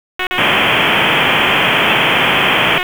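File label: Fire Department HQ Dispatch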